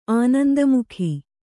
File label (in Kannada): "ಆನಂದಮುಖಿ", Kannada